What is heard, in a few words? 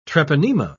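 "treponema", British English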